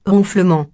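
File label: "ronflement", French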